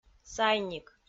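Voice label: "сайник", Komi, female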